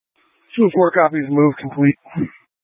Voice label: Light Rail Emergency, scanner; Channel 6; Simulcast F- Tempe

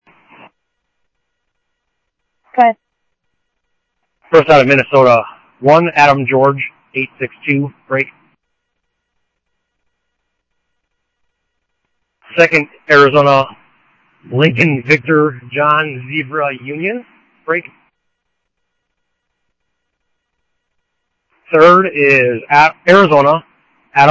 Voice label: Scottsdale Police, scanner; ThompsonPeakHigh; SCTSDLE PD - PD2

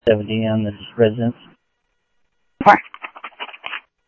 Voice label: Sheriff-EastCnty, scanner audio

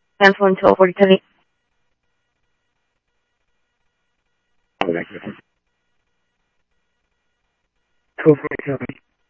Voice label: Police, scanner; H-Scottsdale; ScottsdalePolice

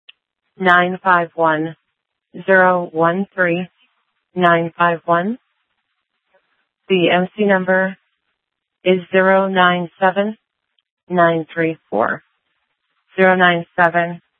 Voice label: Sheriff, scanner

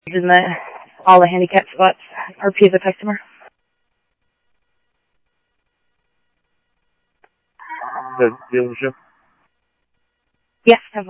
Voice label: police, scanner audio

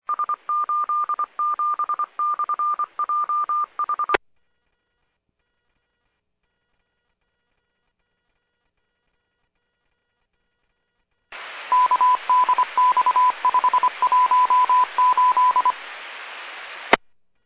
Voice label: Medevac, scanner; PHIAIREVACHelRad

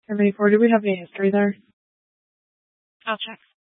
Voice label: Dispatch, scanner

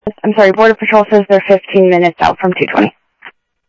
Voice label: Sheriff-WestCnty, scanner audio

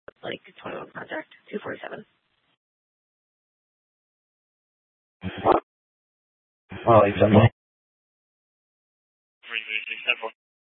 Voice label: PD Dispatch

